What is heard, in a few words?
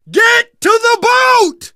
arrive boat, survivor voice